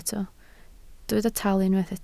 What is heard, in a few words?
"talu", Welsh